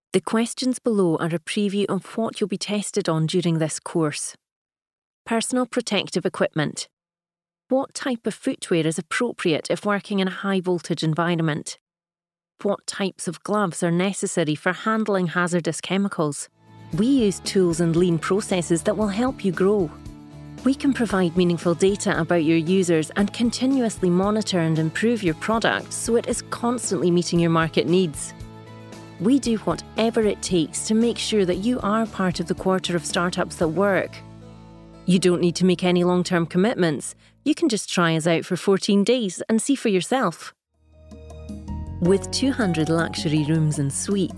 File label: Corporate Scottish